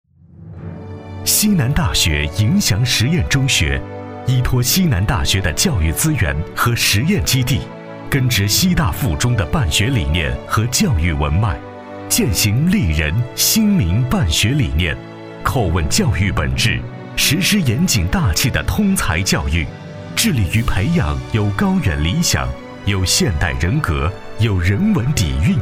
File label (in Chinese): 男 专题 大气抒情; 西南大学银翔实验中学